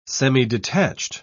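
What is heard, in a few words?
"semidetached", English, British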